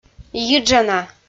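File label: "йиджана", Komi, female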